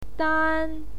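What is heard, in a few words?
"dan", Mandarin Chinese, first tone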